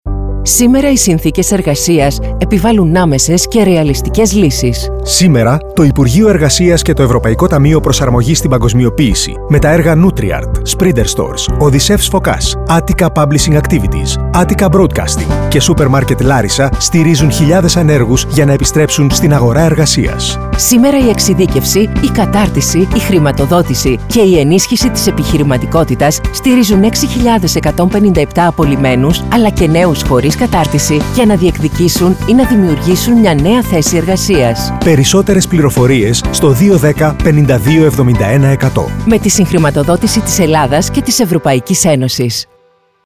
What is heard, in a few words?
RADIO SPOT